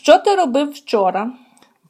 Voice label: dialogue, Ukrainian